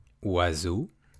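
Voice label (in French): "oiseau" French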